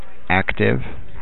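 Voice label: "active", USA, male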